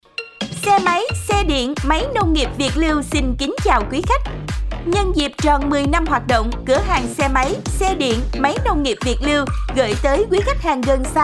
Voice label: Thu am Quang Cao, Xe dien, Giong MC nu mien Nam, Hap dan loi cuon; Viet Luu

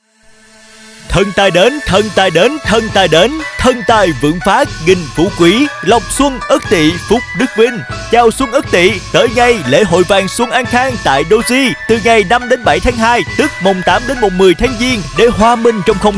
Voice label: thu am quang cao; Doji Co so mien Nam; thu am da ngon ngu

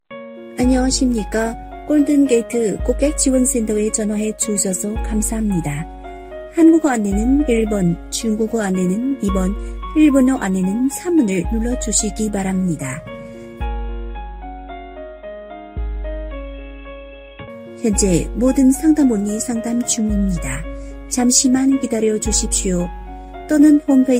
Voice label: Tong Dai Tap Doan Nha Hang Voice Tieng Han; GOLDEN GATE; thu am da ngon ngu

